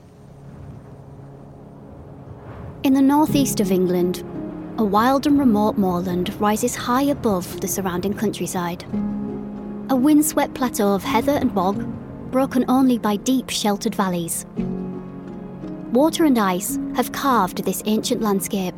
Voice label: Narrative